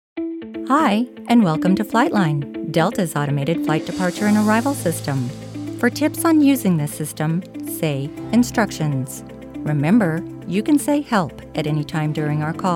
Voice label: IVR